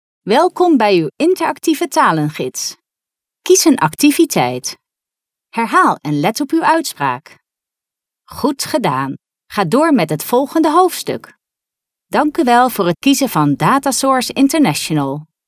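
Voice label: NL female corporate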